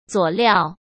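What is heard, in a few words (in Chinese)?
"佐料", Mandarin Chinese; hsk; chinese-flashcards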